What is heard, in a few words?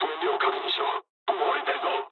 tank damaged mood med, speech jp; War Thunder